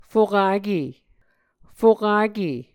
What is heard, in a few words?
"fokake", muscogee; A Dictionary of Creek/Muskogee; SIL International; Onvkv Ohhvyayices